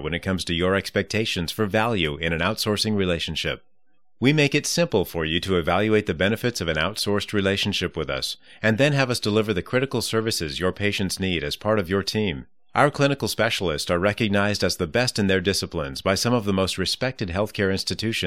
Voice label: Medical narration